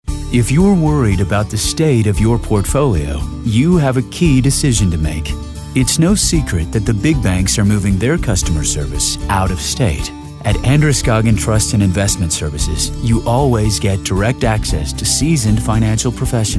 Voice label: Soft Sell

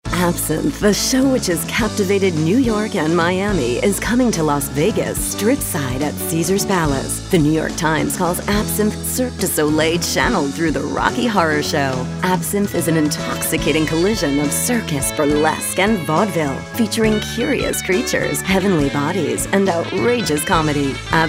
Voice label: Sexy Sultry

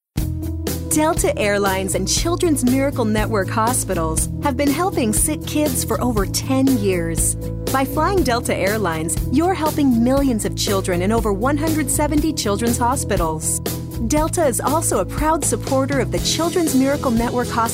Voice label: Friendly

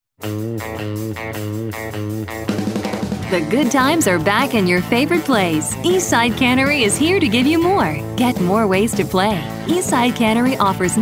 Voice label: On Hold

